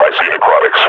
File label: announce enemy zombie, combine grunt